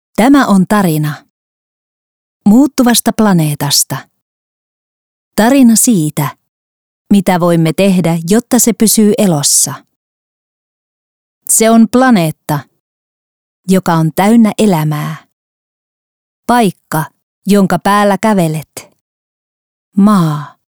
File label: finnish documentaries